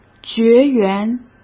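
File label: "绝缘", Mandarin Chinese; chinese-flashcards; hsk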